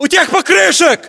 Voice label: landmark near tires, ru; localized english